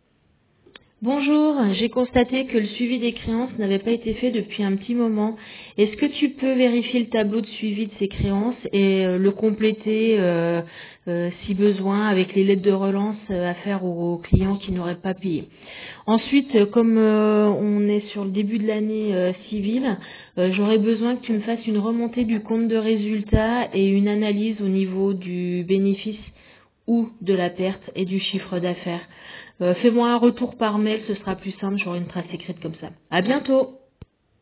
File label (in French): Message de la tutrice laisse sur le repondeur